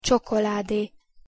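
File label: "csokolade", Hungarian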